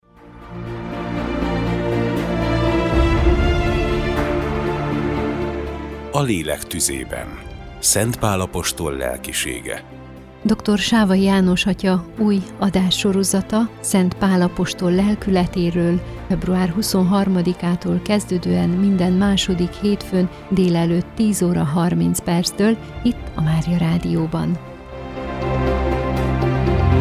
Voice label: Radiospot; A Lelek tuzeben; SEPSI; Musoros reklam szpotok